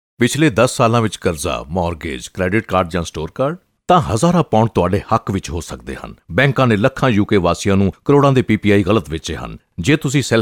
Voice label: Punjabi Male